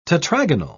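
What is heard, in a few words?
"tetragonal", English, British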